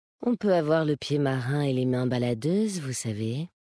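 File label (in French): French Femme Flirt; Pied Marin; Kultirassien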